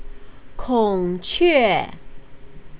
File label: "kongque", Chinese